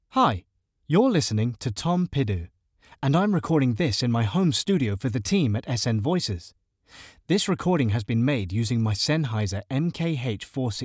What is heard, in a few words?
Home Studio Raw